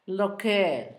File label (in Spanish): frente, Zapoteco, Asunción Ocotlan; Cuerpo; INALI; Haspelmath